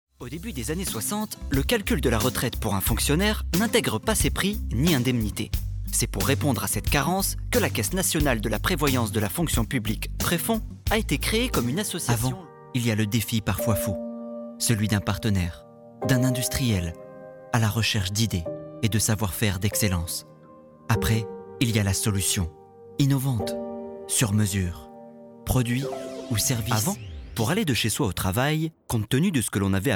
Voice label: French Corporate

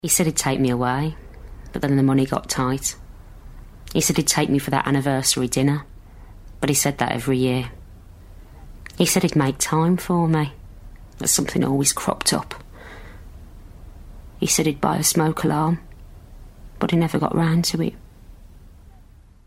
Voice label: Commercial Smoke alarm

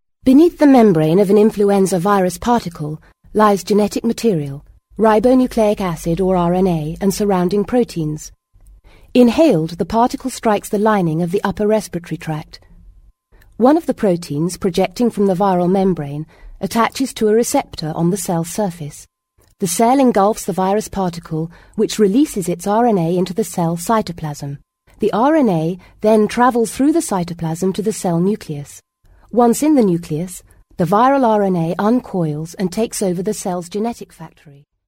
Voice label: Narration

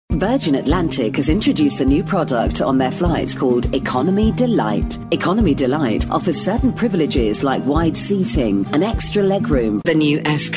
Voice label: Commercial; Vocal Point

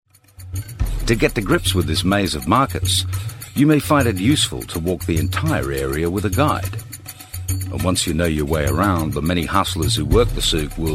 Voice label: Australian Narration

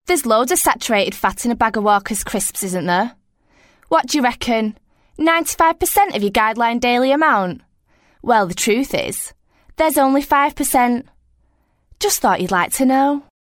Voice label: Commercial; Walkers; Vocal Point

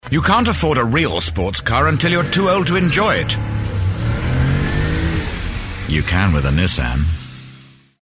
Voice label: Commercial; Nissan; Vocal Point

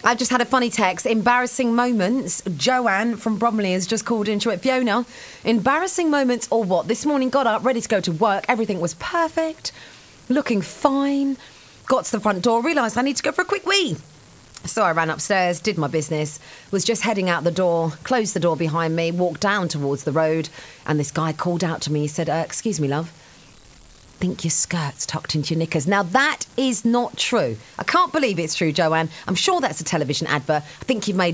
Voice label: Radio; Vocal Point

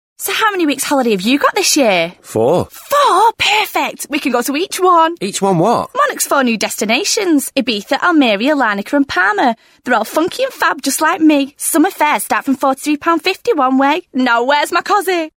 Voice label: Commercial; Monarch; Vocal Point

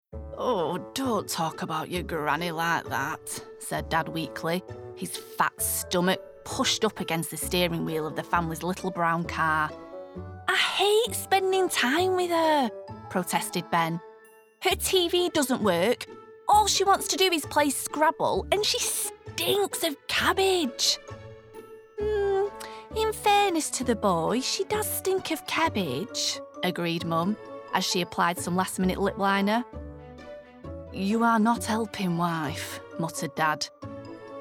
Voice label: Audiobook

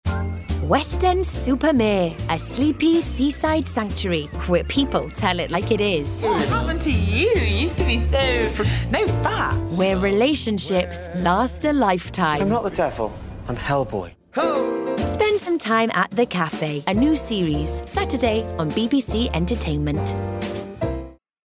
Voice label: Promo; The Cafe; Vocal Point

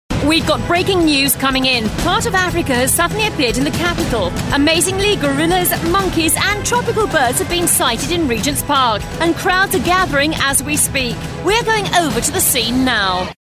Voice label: Commercial Zoo

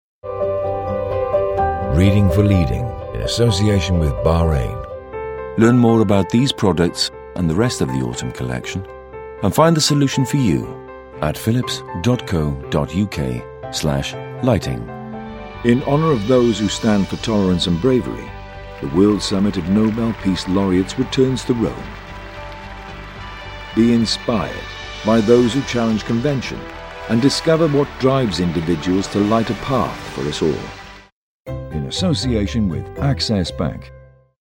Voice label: Corporate VO; Compilation – Various Styles

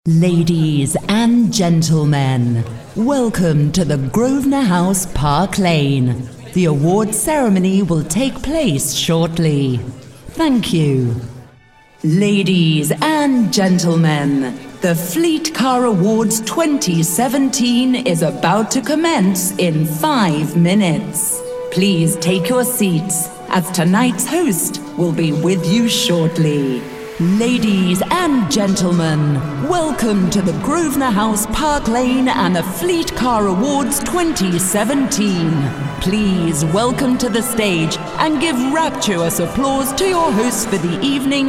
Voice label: Voice Of God

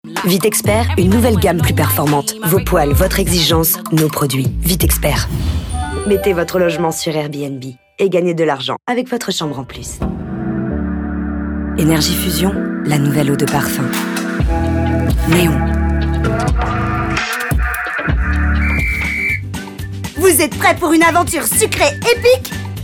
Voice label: French Commercials; Vocal Point

